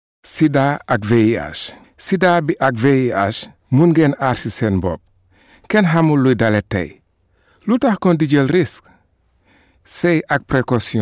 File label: Wolof